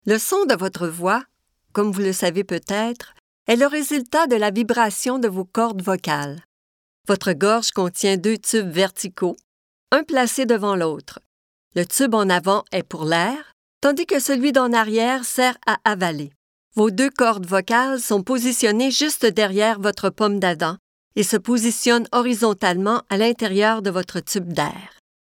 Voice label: narration